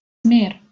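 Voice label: "mer", Proto-Indo-European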